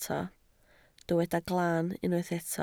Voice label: "glan", Welsh